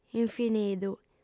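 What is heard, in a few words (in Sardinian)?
"infinidu", female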